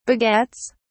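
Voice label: "begets", en, uk British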